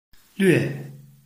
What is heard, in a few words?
"lve4", Chinese